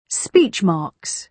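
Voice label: "speech marks", British English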